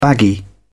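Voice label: "baggy", British English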